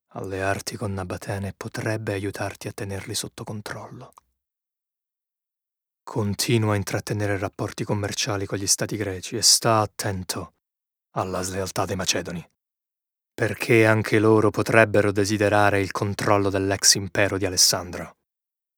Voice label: male italian character compilation